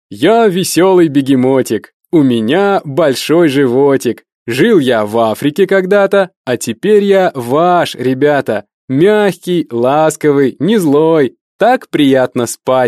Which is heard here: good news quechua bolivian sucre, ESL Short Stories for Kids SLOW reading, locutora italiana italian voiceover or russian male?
russian male